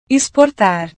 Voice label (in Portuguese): "exportar", portugues